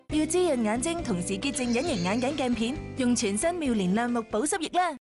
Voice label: Cantonese Voice Sample

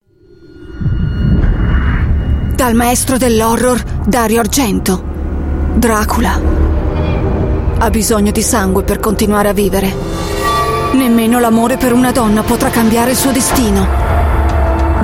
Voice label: Italian Female Trailer; Dracula

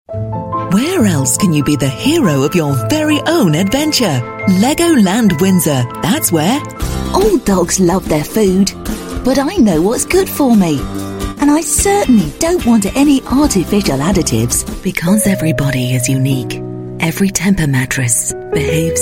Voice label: UK English, Female

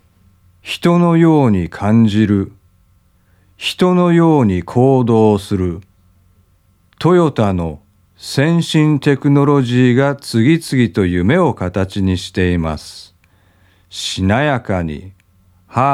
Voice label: Japanese; Toyota

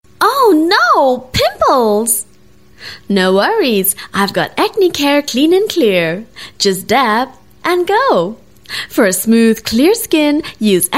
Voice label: TVC, English Singaporean Female

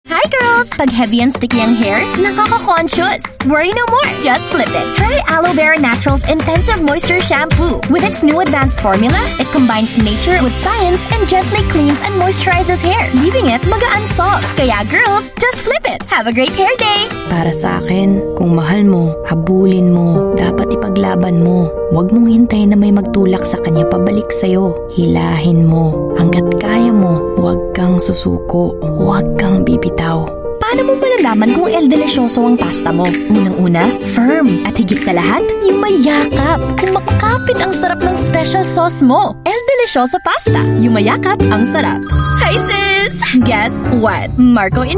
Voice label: Filipino Filipino English Tagalog

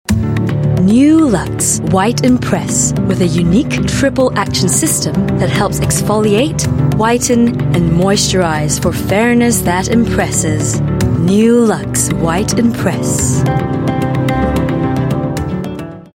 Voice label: Asian English Cosmetics

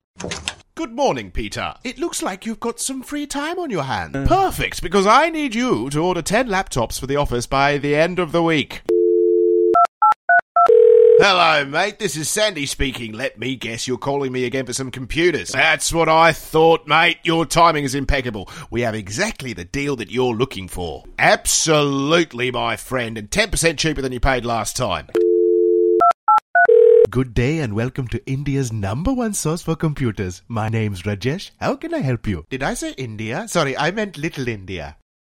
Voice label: UK English Funny Voices; Male

